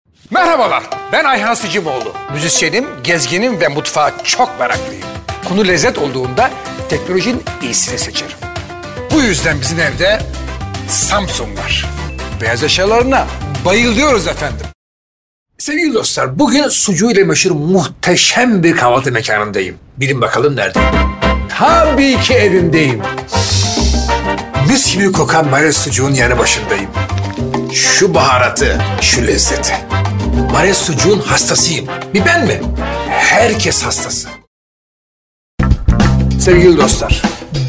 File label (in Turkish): türkçe erkek; Ayhan Sicimoglu